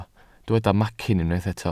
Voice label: "macyn", welsh